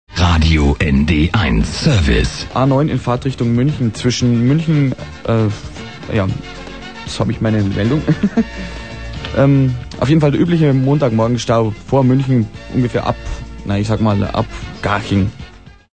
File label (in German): radio stau; aeusserungen versprecher